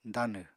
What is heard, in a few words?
"danw", Apatani